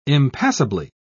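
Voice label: "impassably", British English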